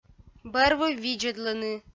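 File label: "бӧрвыв видзӧдлыны", Komi, female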